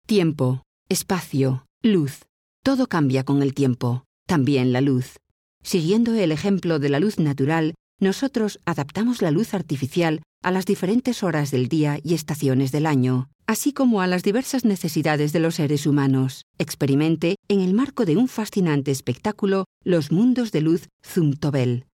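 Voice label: spanish female narration; voice demos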